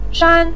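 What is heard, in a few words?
"shan", Mandarin Chinese, neutral tone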